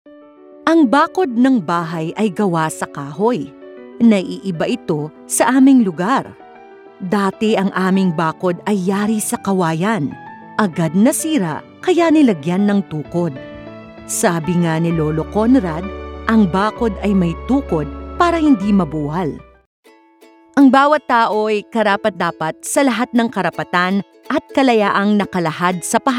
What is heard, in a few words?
tagalog narration